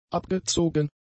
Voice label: "abgezogen", German; adjectives

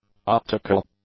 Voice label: "optical", English; adjectives

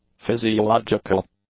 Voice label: "physiological", english; adjectives

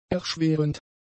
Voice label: "erschwerend", German; adjectives

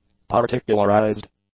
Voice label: "particularized", English; adjectives

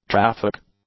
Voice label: "traffic", English; nouns

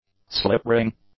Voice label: "slip ring", english; nouns

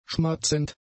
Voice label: "schmatzend", German; adjectives

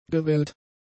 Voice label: "gewellt", German; adjectives

